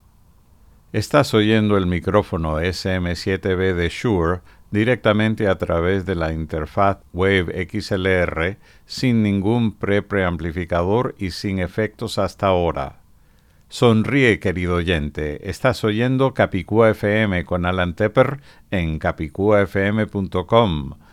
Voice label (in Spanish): XLR SM7B castellano